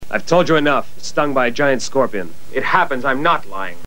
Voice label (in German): Hörprobe des englischen Synchronschauspielers; Hier ist Lex englisch synchronisiert worden; Lex Barker